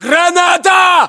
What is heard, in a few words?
inform attack grenade, battlechatter, ru